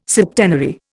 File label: "septenary", Indian